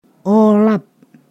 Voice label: "wait", Ponosakan